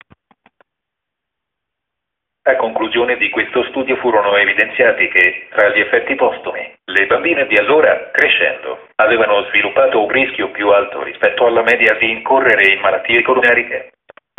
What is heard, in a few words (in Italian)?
frase maschile